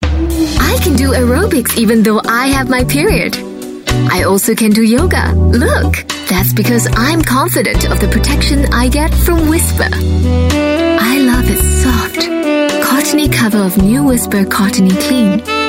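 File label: Asian English whisper aerobics TV commercial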